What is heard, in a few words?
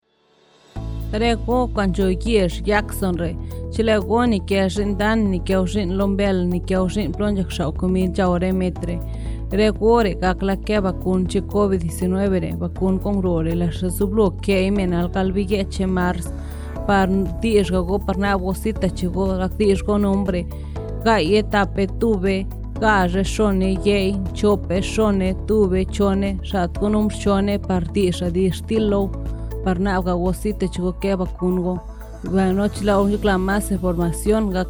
Vaccine Radio Spots, Zapoteco; Jackson; covid19